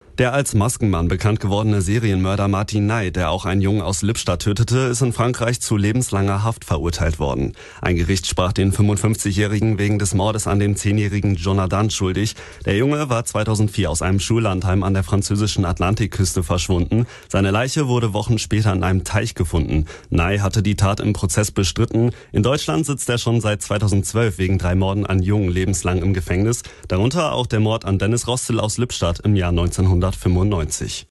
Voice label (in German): nachrichten; maskenmann schuldig urteil auch in frankreich